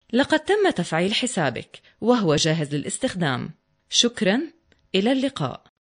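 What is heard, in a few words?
Arabic IVR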